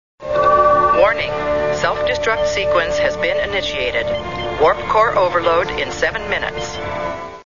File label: computer voice, self destruct 7 min